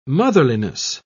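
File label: "motherliness", British English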